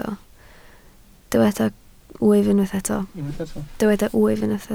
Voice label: "wyf", Welsh